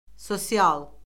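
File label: "sosial", Azerbaijani; Orfoqrafiya Lüğəti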